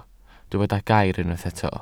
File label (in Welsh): "gair", Welsh